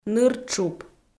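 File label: "ныртшуп", Komi, female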